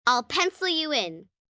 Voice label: "Ill Pencil You In", Adult Phrase, 1 girl, 9 yrs; Talking Sound Effects